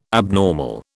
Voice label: "abnormal", British